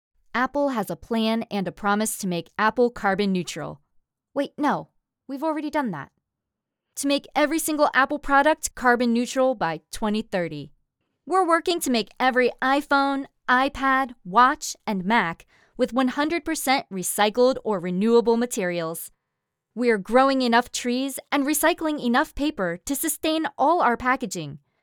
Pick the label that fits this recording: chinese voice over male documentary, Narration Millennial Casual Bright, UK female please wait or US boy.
Narration Millennial Casual Bright